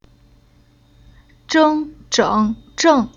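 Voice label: "Zheng", Chinese syllable; Уроки фонетического блока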